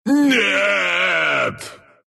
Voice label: death, ru; dota2

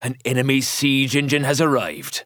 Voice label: Announcer Match Siege Enemy Arrived; First Mate

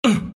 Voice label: Wraith pain, ru

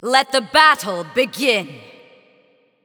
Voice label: Announcer Match Start; LoreLady

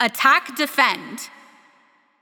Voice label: Announcer Game Mode Attack Defend

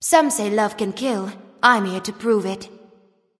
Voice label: Female Taunt; Valentine; Khepri

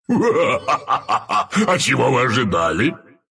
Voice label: arc win, ru; Zuus

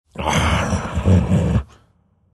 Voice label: anger; Lycan

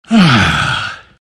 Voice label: happy; Omniknight

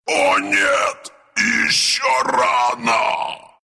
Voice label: death, ru; Husk

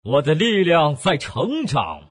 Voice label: level, zh; Dota 2

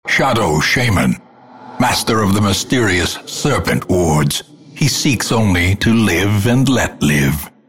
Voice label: announcer

